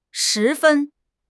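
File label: "shi2 fen1", Mandarin Chinese; HSK4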